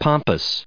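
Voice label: "pompous", us English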